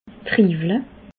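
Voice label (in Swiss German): "triiwel", Bas Rhin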